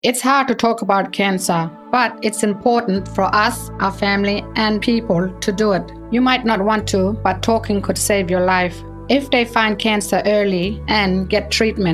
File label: English; Yarn-for-Life